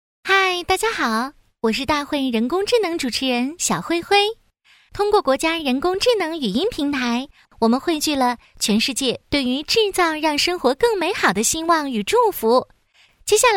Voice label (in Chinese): 广告, 人工智能机器主持人